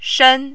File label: "shen1", Mandarin